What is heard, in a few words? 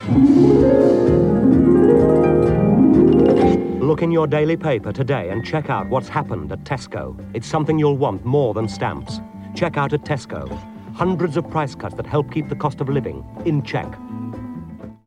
Radio Advert; Radio Hallam; Tesco